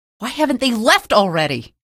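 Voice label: citizen alert soldier, female; vo; npc; source engine; episodic; episode 1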